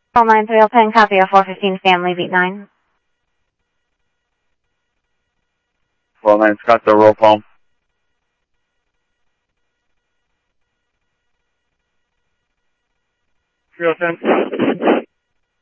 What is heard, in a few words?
PD Police, scanner audio; ThompsonPeakHigh; SCTSDLE PD - PD2 ScottsdalePolice